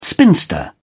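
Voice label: "spinster", British English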